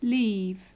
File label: "leave", English